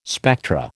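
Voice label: "spectra", American English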